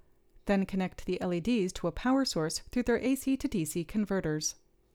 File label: Gong Voiceover